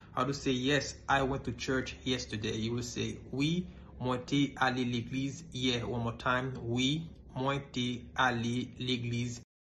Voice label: Yes I went to church yesterday in Haitian Creole Wi mwen te ale legliz ye pronunciation